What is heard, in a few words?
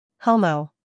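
"humor", British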